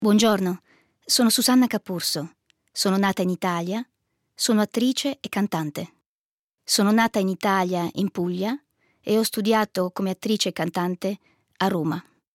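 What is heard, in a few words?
it, Italian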